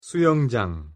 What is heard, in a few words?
"수영장", Korean; pronunciation